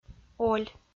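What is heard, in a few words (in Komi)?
"оль", Komi, female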